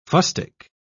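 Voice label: "fustic", British English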